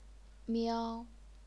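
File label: "miao", first tone, chinese pinyin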